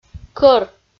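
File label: "кӧр" Komi, female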